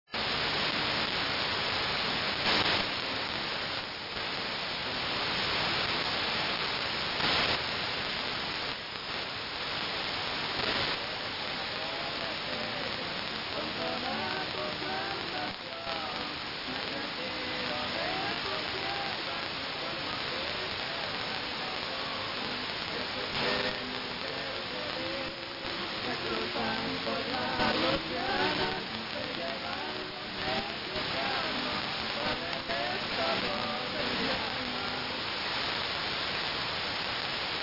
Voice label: 6.185 MHz, Spanish, Mexico City; XEPPM